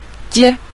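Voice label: "jie", Mandarin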